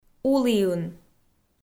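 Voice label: "уліын", Komi, female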